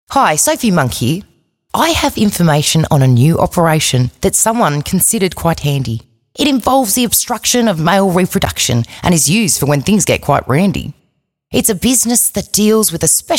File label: Vasectomy voiceover Ad; HealthHQ; Sophie Monk